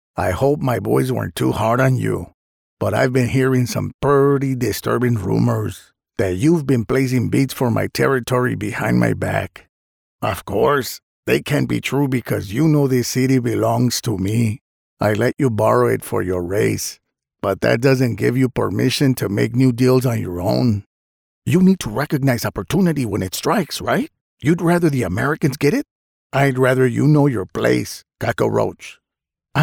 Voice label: English with Mexican Accent Video Game

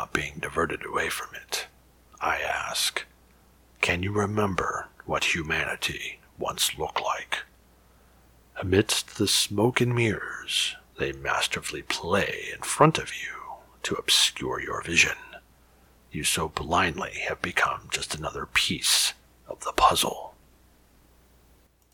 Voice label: Radio Sample; Last Hope

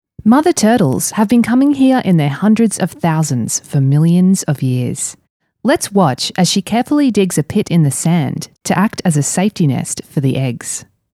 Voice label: mother turtle

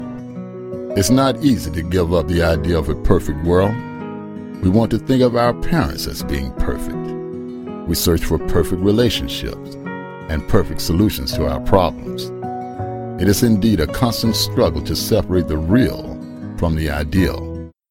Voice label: NARRATIONS; A PERFECT WORLD

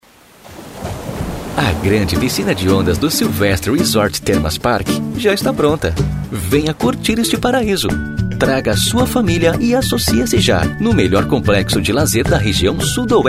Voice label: Spot - Young Style

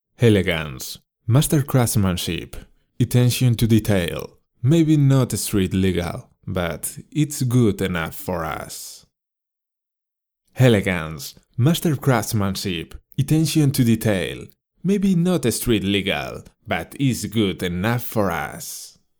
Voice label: English spanish accent

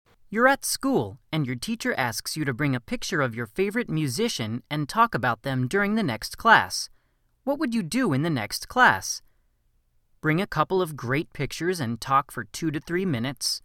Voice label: natural speak

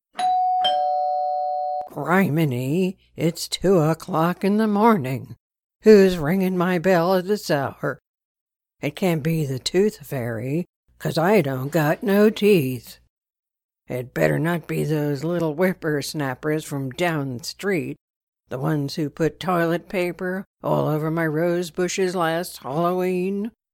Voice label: CRANKY OLD LADY

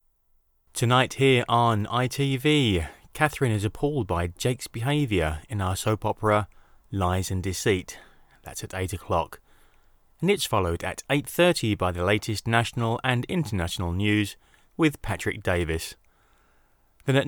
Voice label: TV Continuity

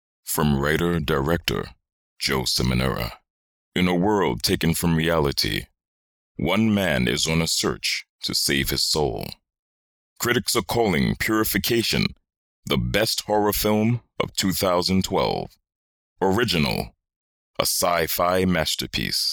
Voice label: movie trailer; purification

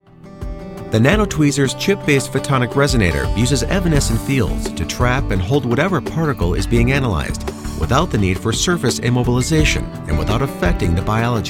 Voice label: medical narration

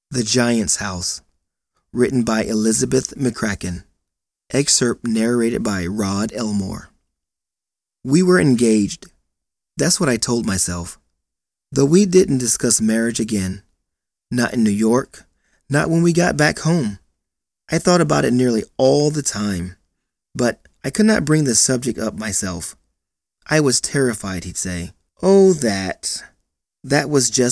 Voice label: Audio Book narration; The Giant's House

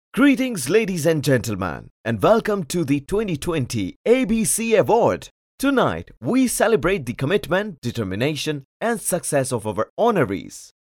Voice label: Live announcer

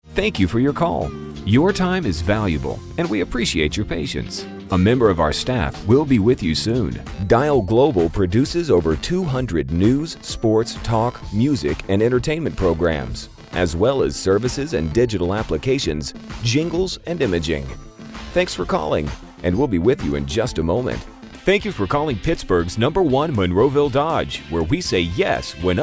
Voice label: IVR On Hold

